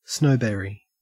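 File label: "snowberry", Australian English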